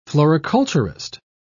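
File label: "floriculturist", British English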